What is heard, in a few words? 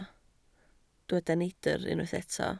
"neidr", Welsh